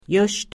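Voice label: "yuscht", Pennsylvania Dutch; y in year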